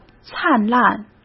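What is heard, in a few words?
"灿烂", Mandarin Chinese; chinese flashcards; hsk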